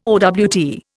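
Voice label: "owt", Indian English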